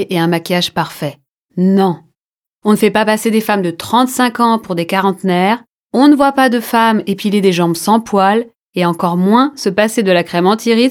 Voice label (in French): Pub à la radio